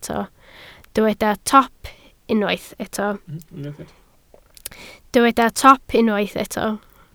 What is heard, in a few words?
"top", Welsh